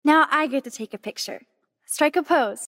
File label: young voice; Life is Strange; young Max; gamesoundopedia